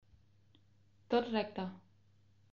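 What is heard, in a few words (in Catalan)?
tot recte, Catalan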